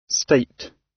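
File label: "state", British; Language, linguistics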